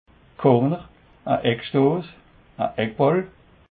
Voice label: "corner", alsace

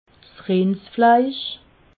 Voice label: "rindsfleisch", alsace

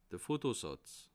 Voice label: "fotosatz", alsace

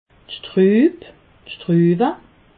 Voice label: "schrub", alsace

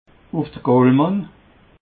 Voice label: "uf de goolmann", alsace

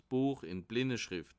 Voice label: "blinderschrift", alsace

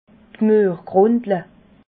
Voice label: "muergrundle", alsace

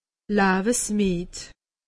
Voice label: "lawesmied", alsace; medecine

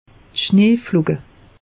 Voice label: "schnee", alsace; meteo

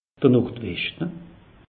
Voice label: "nordweschte", alsace; meteo

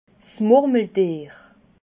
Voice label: "murmeltier", alsace

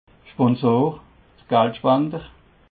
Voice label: "schponsor", alsace

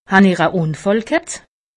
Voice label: "unfall", alsace; medecine

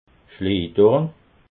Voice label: "schleedorn", alsace

